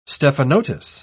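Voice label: "stephanotis", British English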